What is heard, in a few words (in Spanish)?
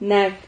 "nag", Silabas